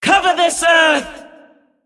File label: Female Titan Keeper Attack